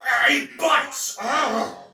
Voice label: Damage Low Chance, Soldier Male; Dialog; Barks; Battle; Sinecure

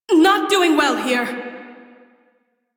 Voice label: Crew Near Death, Female; Railjack Crew; Cephalon Suda

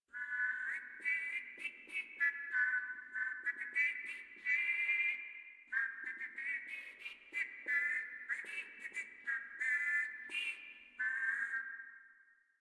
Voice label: Patrol Grineer Male, Barks, Battle

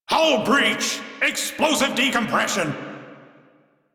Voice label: Crew See Breach, Male; Dialog; en; Warframe; Railjack Crew; Arbiters Hexis

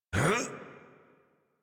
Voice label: Adult Operator Jump, en; Warframe; New War

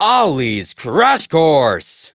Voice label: Game Start Arcade Machine; Warframe; 1999; Ollies Crash Course

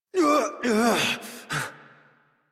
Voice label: Horse Mount Adult; Warframe; Duviri

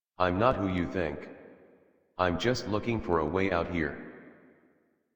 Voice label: Adult, en, Dialog; Theatre; Warframe; Duviri Paradox Quest

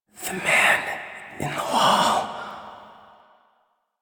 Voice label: Intelligible Whisper H; Rell; Warframe; Harrow

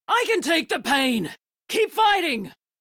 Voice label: Crew Heal Self, Female; Dialog; en; Warframe; Railjack Crew; Steel Meridian

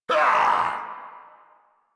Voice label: angry, en; Warframe; Tyl Regor Boss; Efforts

